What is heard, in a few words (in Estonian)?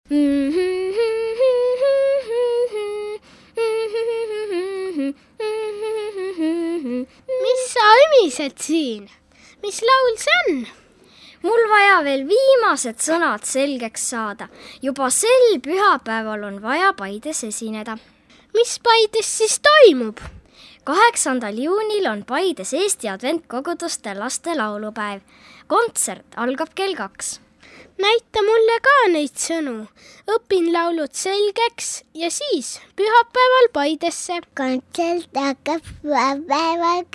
reklaam; laste laulupaev 2008